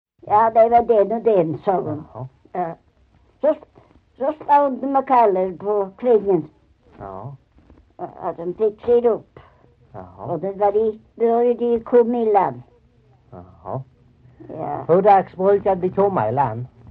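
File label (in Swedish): Maglarp Skåne, Svenska sagor dialekter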